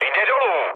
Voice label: aircraft destroyed, speech cze, mood low